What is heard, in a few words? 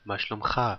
how are you in hebrew male